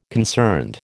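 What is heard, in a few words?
"concerned", American English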